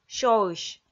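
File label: "сёысь", Komi, female; kpv audio dict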